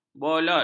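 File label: "bolot", Malay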